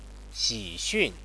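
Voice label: "xixun", Chinese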